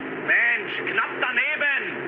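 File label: German bomb failure mood low, speech; War Thunder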